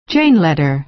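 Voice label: "chain letter", American English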